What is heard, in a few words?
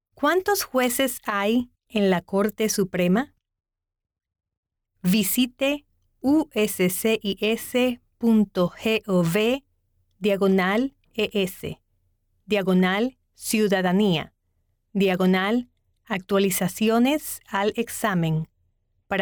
Spanish